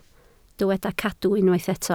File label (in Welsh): "cadw" Welsh